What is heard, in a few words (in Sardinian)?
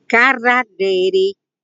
"Carradderi", sardu